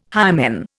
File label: "hymen", Indian